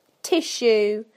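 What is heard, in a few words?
"tissue", British English; PictureDictionary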